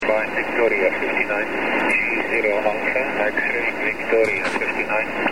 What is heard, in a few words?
21 ssb